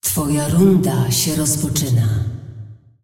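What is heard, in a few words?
announcer female tournaments round start; clash; pl pl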